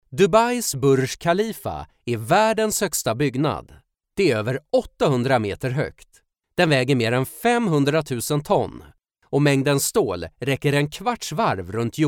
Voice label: swedish documentaries, voice actor; professioneller schwedischer; Dokumentarfilme; Sweden

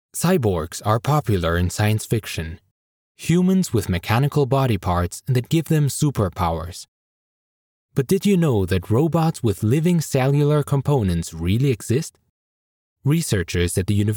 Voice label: english international e-learning

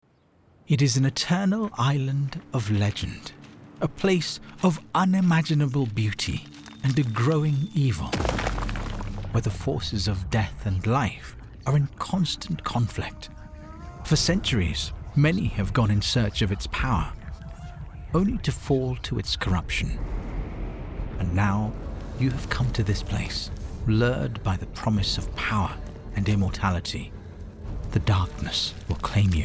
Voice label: english british narration

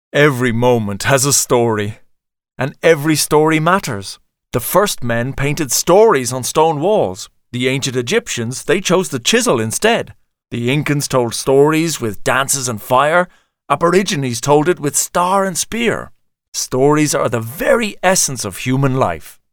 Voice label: english irish documentaries

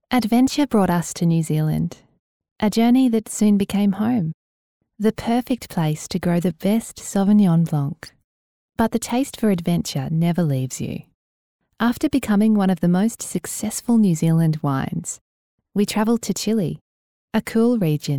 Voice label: English Australian promos

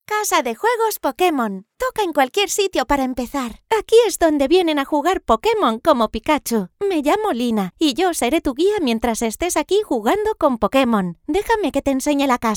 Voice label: Spanish video games

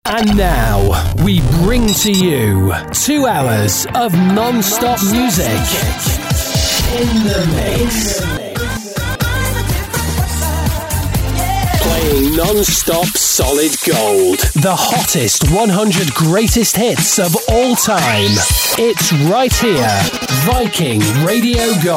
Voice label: English British

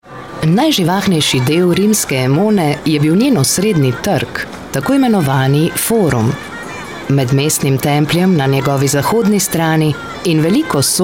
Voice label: slovenian documentaries

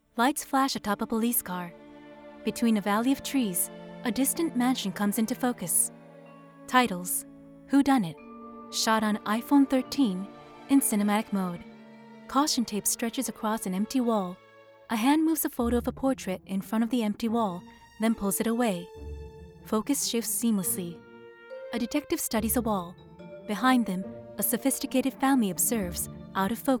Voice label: english audio guides

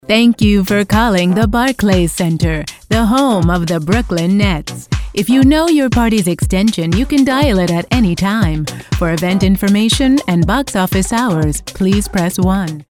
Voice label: english american ivr

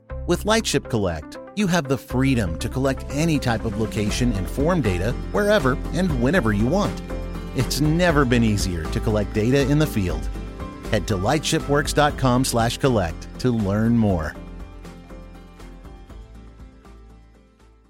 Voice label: english american explainer videos

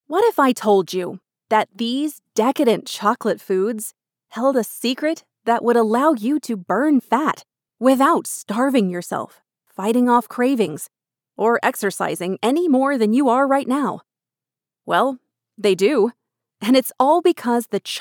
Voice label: english american narration

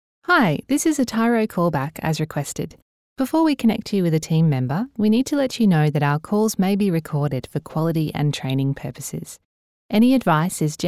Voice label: English Australian IVR

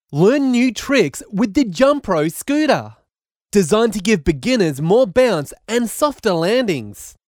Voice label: english australian promos

